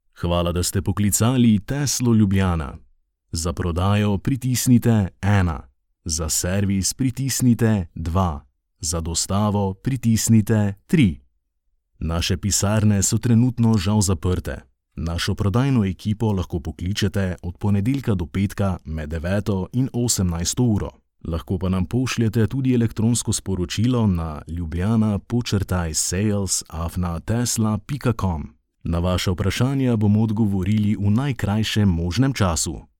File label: IVR sample; Tesla